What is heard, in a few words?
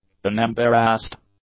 "unembarrassed", English; adjectives